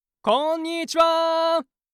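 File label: "konnichiwa", Japanese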